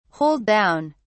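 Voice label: "hold down", British English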